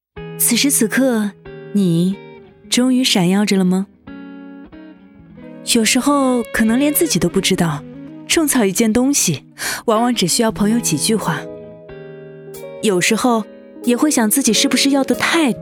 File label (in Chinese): 女, 高端广告-婚礼